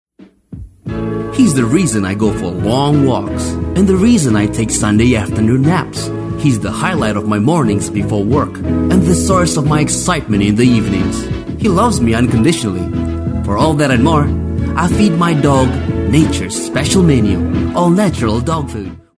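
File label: English casual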